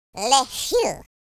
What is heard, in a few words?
relief, French Lover; speech